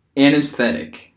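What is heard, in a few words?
"anesthetic", American English; pronunciations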